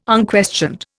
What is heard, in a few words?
"unquestioned", Indian